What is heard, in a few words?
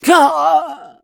hit, en; cookierun